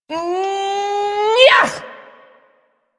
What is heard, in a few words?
Ability Lift, Barks; Warframe; Corpus Lich; Persona A